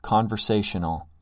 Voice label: conversational